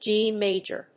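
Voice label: "G major", English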